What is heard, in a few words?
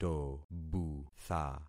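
"tobutha", concatenated syllables; Affixing experiment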